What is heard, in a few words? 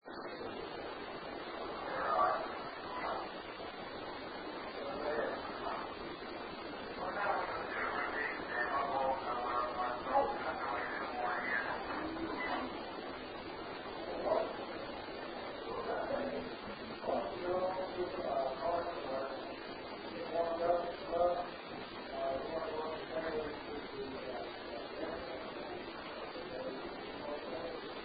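Unexplained Female voice; Case 028 Molly Tynes House; Master Bed Room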